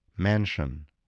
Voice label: "mansion", spelling voice